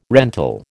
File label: "rental", American